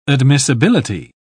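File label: "admissibility", British English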